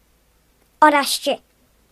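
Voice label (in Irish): "orange color", Irish; gcompris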